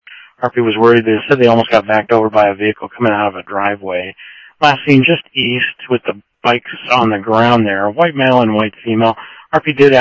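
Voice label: Simulcast police department, scanner audio trunk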